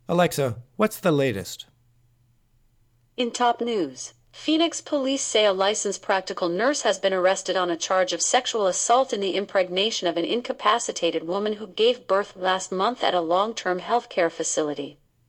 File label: alexa newscaster voice